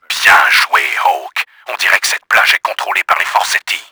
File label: BEACH SECURE, french; Battle Engine Aquila; XBOX